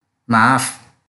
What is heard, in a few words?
Kelantan-Pattani Malay